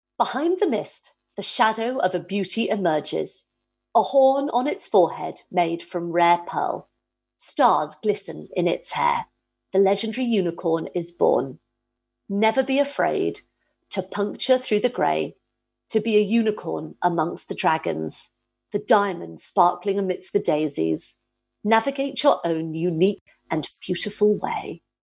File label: STORY; Writing Wizardry Competition 2020; Year 3 & 4 Category